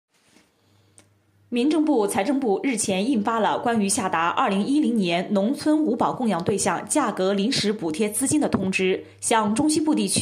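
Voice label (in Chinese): 新闻旁白手机录音; 农村五保供养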